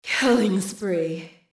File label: "killing spree", male